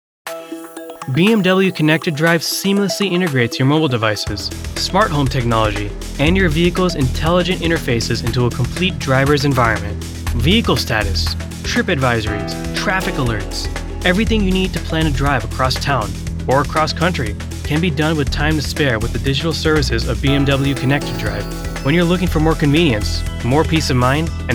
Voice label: Corporate